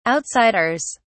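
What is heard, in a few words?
"outsiders", British English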